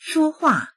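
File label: "shuo1hua4", Mandarin Chinese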